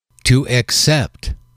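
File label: "to accept", English, male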